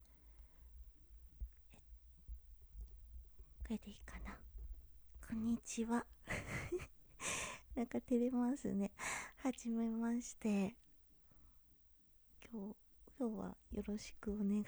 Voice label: ノイズあり生音声

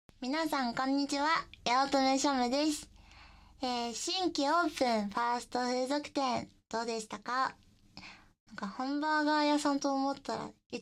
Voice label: フリートーク; 新規オープン!ファースト風俗店!～ハンバーガー屋に来たつもりが中出しセックス!?～

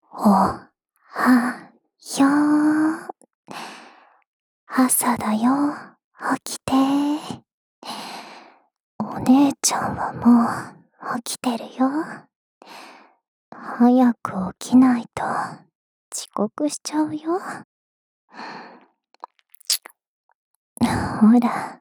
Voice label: スマホ用目覚ましボイス 右耳; KU100バイノーラル

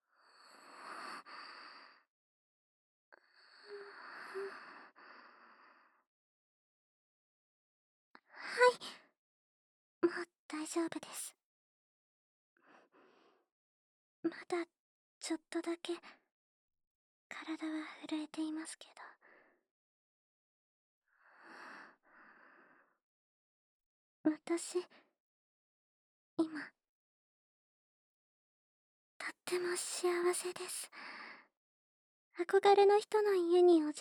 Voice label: ピロートーク; 恋する無垢なJCとピロートークしてみた

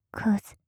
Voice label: "クズ", 極近, 左; マゾオスのあなたに敗北射精の快楽を叩き込む音声

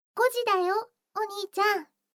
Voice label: 時報5時; 全肯定ロリママのあまあまでえっちな添い寝; いもおかASMR

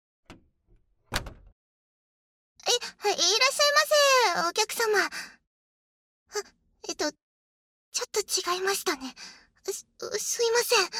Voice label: おどおど処女サキュバスのド緊張ご挨拶, ASMR; ムッツリ処女サキュバスに暴走逆レイプされる搾精風俗店

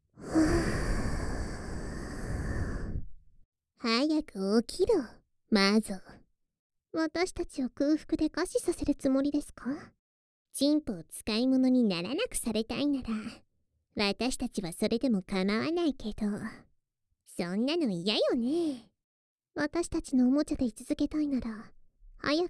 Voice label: 目覚ましボイス; 目覚ましボイス(アリア&セレナ2種); メスガキ双子シスター

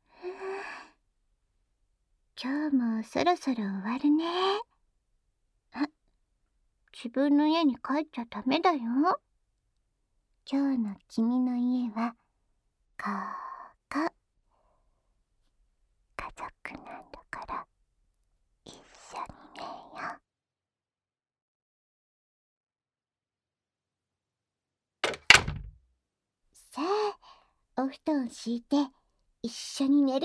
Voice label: 家族なんだから、一緒に寝よ？ 添い寝, ASMR

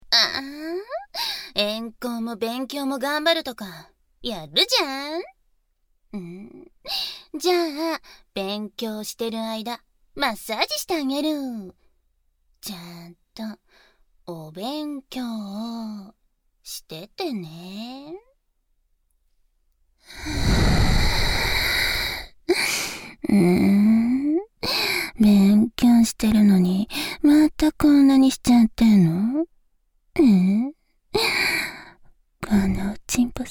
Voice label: 語り掛け; ギャルフェラッ!!学校は援交ギャル風俗店ッ!!

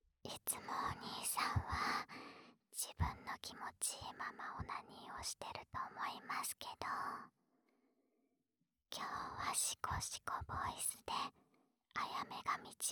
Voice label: カウントダウンと耳舐めで寸止め♪ シコシコボイスなし

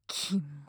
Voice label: "きも" 強く 近 右, ASMR

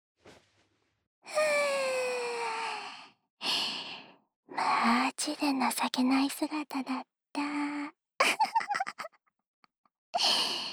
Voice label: 耳元で言葉責めしながら寝かしつけ（両耳）; 情けない姿のご主人さまの耳元で言葉責めしながら寝かしつけ

